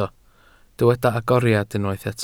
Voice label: "agoriad", Welsh